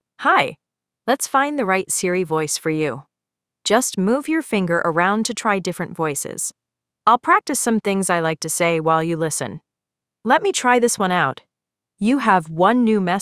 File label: en-US; iOS 26